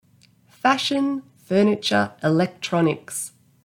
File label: Fashion furniture electronics, en au; department store; basetalk